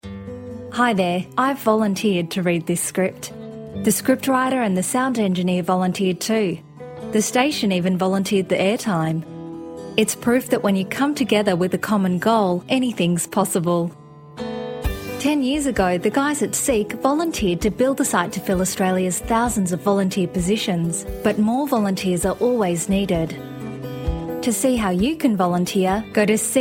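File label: English Australian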